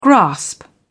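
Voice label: "grasp", British English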